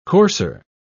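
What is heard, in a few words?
"courser", British English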